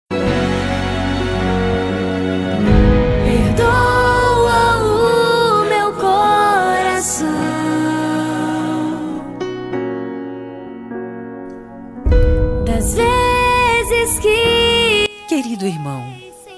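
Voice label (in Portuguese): aniversario evangelico para irmao feminino, telemensagem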